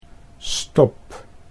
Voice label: "stop", Dutch

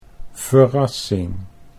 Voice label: "verrassing", Dutch

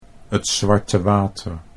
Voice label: "t zwarte water", Dutch

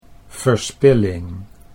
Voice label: "verspilling", Dutch

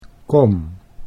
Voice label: "kom", Dutch

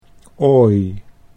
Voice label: "ooi", Dutch

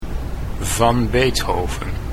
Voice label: "beethoven", Dutch

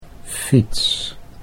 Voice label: "fiets", Dutch

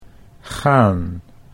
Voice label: "gaan", Dutch

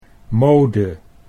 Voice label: "mode", Dutch

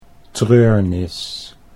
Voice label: "treurnis", Dutch

